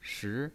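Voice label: "shi", Mandarin Chinese pinyin, second tone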